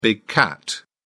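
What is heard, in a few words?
"big cat", English, British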